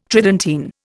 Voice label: "Tridentine", Indian